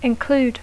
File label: "include", English